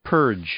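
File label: "purge", English; verb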